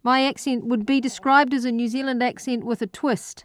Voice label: New Zealand sentence; transcription exercises; newzealand sounds